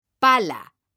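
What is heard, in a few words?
"pala", Spanish, female; mekanta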